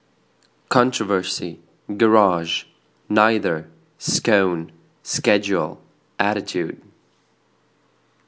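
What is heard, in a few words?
riga latvia male, accent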